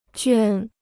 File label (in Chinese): "圈", Chinese